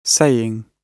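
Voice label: "saying", British English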